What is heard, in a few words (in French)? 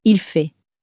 il fait, common french words